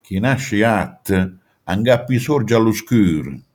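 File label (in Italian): "gatto", Manfredonia dialect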